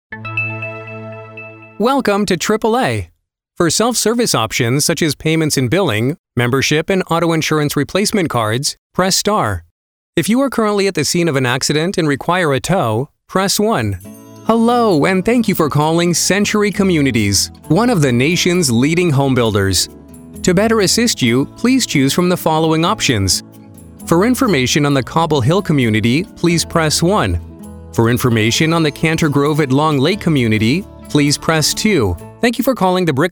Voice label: phone